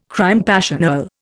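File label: "crime passionnel", Indian English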